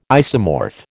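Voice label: "isomorph", American English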